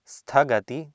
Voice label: "sthagati", Sanskrit

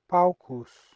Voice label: "paucus", Latin

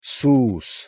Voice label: "sus", Latin

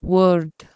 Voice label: "word", Anglo Saxon

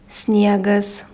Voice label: "sniegas", Lithuanian

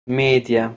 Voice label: "medja", Proto-Germanic

